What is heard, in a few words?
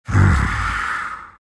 happy; dota; earth spirit